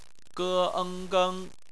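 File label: "geng" bopomofo, Chinese; phonetics; bpmf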